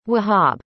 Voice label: "Wahab", arabic; indonesian boy names